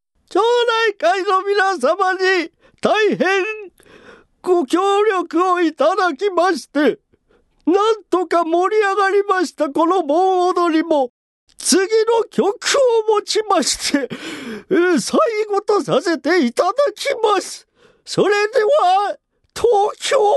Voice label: male voice